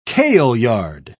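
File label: "kaleyard", British English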